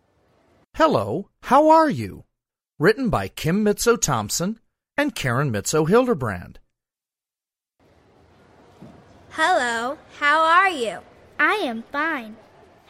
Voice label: English Narration